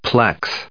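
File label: "plex", US English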